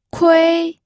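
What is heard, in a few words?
"kui", first tone, pinyin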